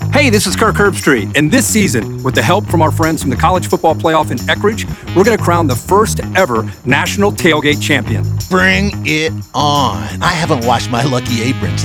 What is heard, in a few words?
radio Promo; ESPN radio; NTC Champion